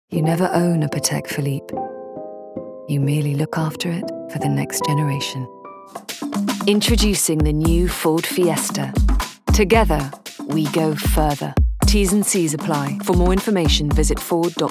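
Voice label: Commercial Reel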